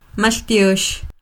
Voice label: "Maltyox!", Quiché; ¡Gracias!; Thanks!; INALI; UTexas; CHQETA